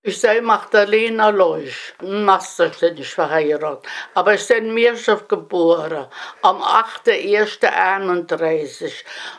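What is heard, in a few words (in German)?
vorstellung, O-Ton Hunsrück; Mörsdorf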